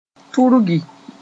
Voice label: "turgi", moore